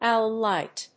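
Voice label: "owl-light", English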